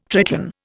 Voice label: "Triton", Indian